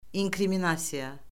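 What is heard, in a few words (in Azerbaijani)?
"inkriminasiya", Azerbaijani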